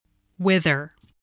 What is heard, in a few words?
"wither", voice, english